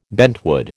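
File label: "bentwood", American English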